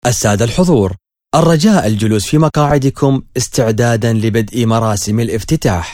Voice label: Announce To The Audience, Classic Arabic